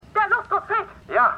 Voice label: Frau Anderer Mann; For All Mankind

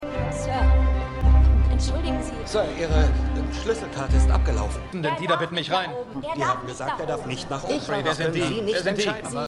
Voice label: Empfangsdame Wachmann; Succession

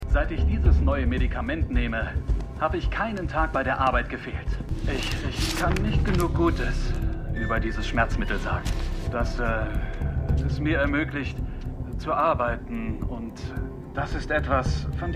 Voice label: Mann Werbespot; Stimmproben; Dopesick

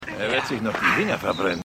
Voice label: Mann; AusgerechnetAlaska

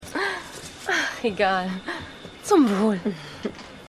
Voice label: Frau; X Factor

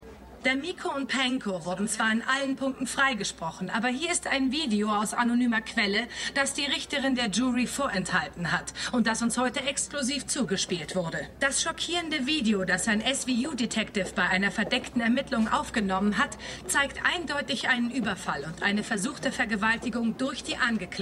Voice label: Reporterin; LO SVU

